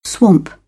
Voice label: "swamp", British English; ɑː - ɒ